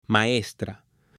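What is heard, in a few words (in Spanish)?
"maestra", Spanish, female; AppLenguaje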